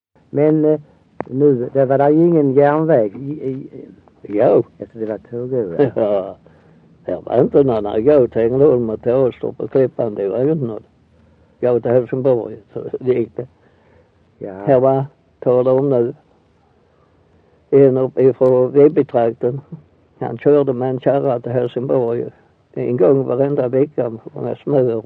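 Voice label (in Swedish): Össjö Skåne, dialekter; Folkminnen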